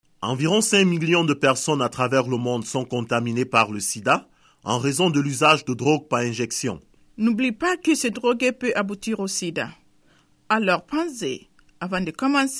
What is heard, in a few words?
spot french